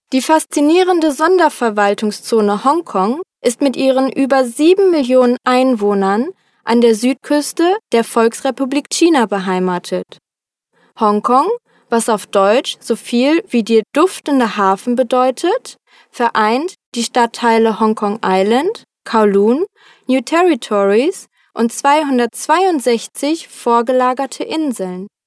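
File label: German female corporate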